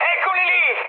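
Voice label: Italian enemy detected mood high, speech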